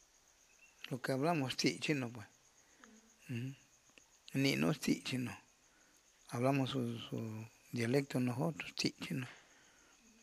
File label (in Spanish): zapoteco